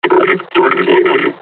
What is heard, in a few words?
taunt, combine charger; Half Life Alyx